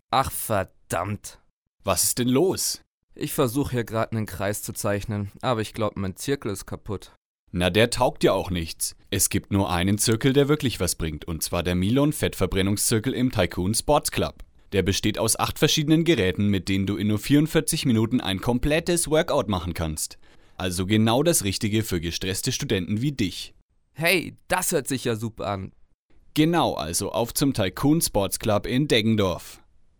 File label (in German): Werbung ohne Musik; Tycoon